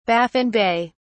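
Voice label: "Baffin Bay", British English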